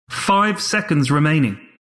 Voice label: announcer count pick; announcer dlc

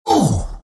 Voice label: pain; Visage

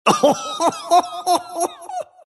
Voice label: monkey laugh; dota; monkey king

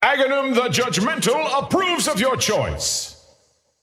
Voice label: scepter announce in game; dota

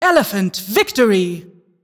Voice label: announcer team victory elephant

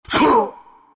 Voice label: pain; dota; furion

